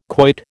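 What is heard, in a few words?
"quoit", American English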